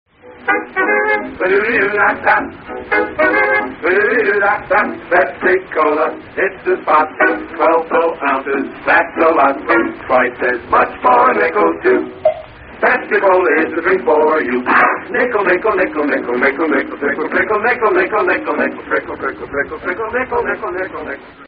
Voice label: vintage commercials pepsi commercial